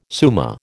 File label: "summa", American